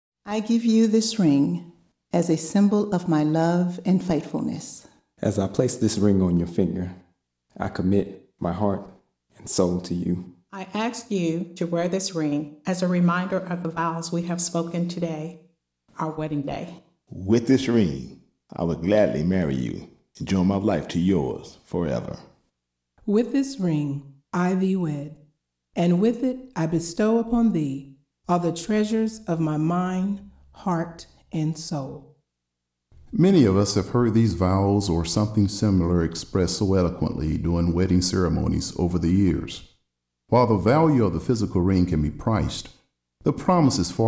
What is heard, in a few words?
Opening Narration; One Accord; With This Ring